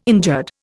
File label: "injured", Indian